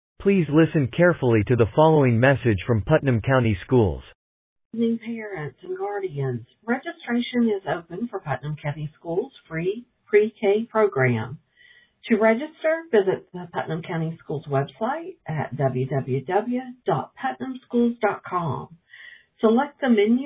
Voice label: Voicemail